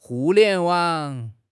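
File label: "Hu4 lian2 wang3", Chinese; HSK4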